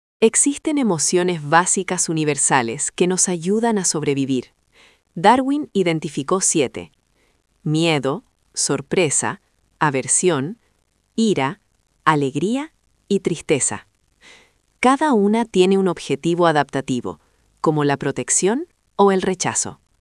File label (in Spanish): locucion